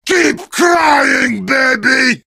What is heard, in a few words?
heavy taunts; video games; source engine; tf